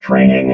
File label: "training", robot